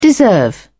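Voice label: "deserve", British English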